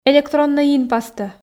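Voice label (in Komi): "электроннӧй инпастӧ", Komi, female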